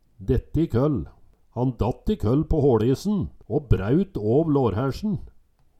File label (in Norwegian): "dette i køll", Numedalsmål